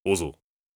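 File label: question short, voice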